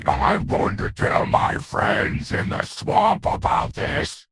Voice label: happy; croc; fertig classic games